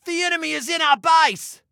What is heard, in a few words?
Enemy In Base, Male